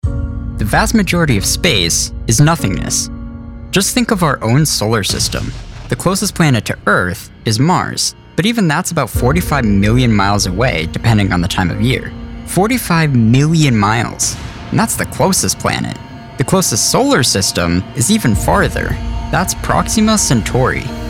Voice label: Narration